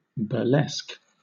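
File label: "burlesque", British English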